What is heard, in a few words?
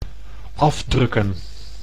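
"afdrukken", Dutch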